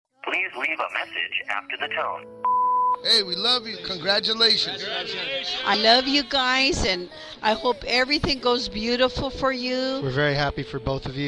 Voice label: audio guestbook